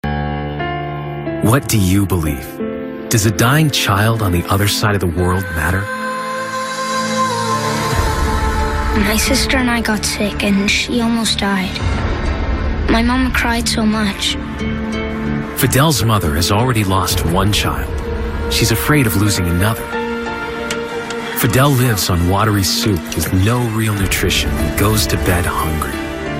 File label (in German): TV Werbespots Jugendfrei; NATIVE PROFISPRECHER